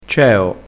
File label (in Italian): "ceo", crespinese